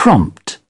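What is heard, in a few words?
"prompt", British English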